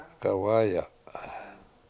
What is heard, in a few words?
"k7awA7ya7", Washo; Green Manzanita